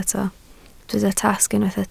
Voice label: "tasg", Welsh

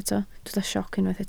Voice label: "sioc", Welsh

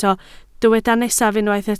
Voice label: "nesaf", Welsh

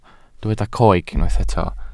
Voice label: "coeg", Welsh

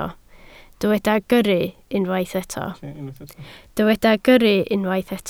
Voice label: "gyrru", Welsh

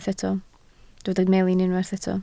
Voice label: "melin", Welsh

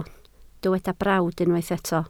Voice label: "brawd", Welsh

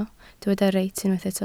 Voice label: "reit", Welsh

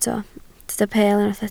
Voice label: "peel", Welsh

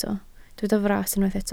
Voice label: "fras", Welsh

